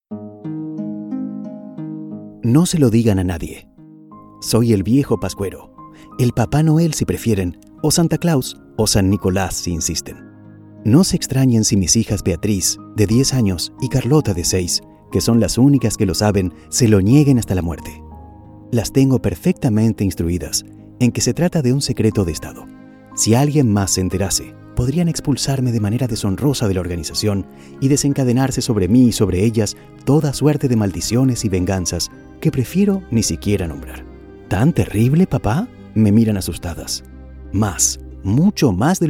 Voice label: Spanish LA M Showreel